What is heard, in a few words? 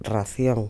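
"racion", Spanish, Santiago de Compostela; Galicien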